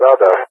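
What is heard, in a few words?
"radar", English speech; Target; DCS; Allied Flight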